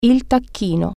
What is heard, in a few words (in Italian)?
"turkey", Italian